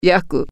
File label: burn, japanese